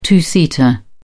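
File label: "two-seater", English, British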